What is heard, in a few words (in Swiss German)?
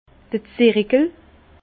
"zirikel", Bas Rhin; vin